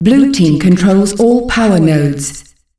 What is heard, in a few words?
Blue team controls all powernodes, Announcer Voice, female; Unreal Tournament; ut2004